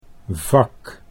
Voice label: "vak", Dutch